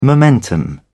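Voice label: "momentum", British English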